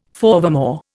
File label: "forevermore", Indian